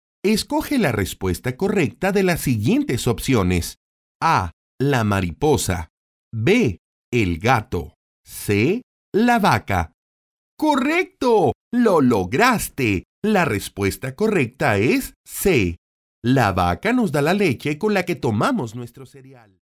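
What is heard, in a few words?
Spanish Americas, male, voice over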